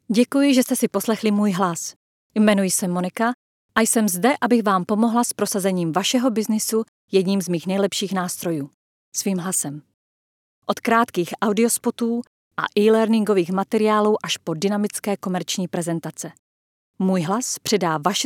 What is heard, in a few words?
Czech voice introduction